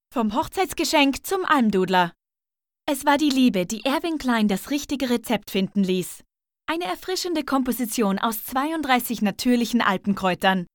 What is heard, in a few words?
High German; Almdudler